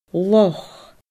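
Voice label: "loch", Scottish Gaelic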